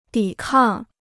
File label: "抵抗", Chinese